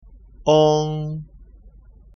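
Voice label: "ong", pinyin; สำเนียงปักกิ่ง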